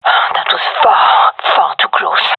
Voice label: close call, gendarmerie fem; vo